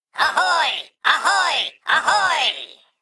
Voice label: Male Mech PIRATE DAY; zh